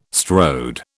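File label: "strode", British English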